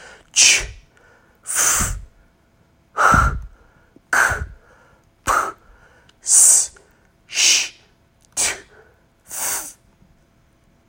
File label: Voiceless Consonants Chant Slow